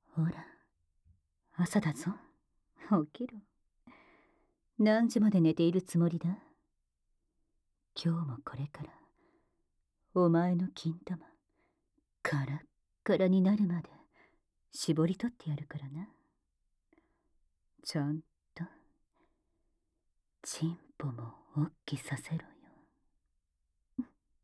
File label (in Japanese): ラブラブ目覚まし音声♪; ソフィア